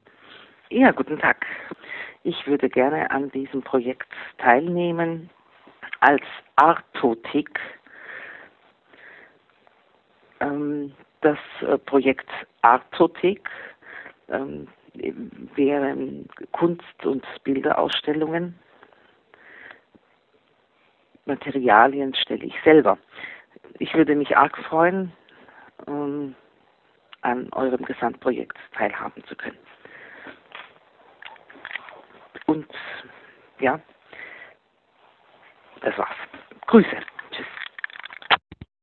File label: Voicemail